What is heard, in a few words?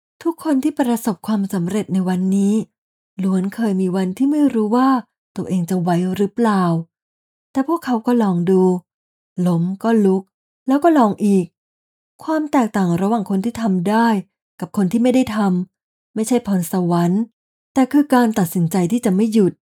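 Narration Motivation, Female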